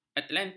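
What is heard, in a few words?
"atlantik", Malay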